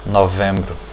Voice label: november, portuguese